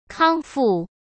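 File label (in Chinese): "康复", Mandarin; chinese-flashcards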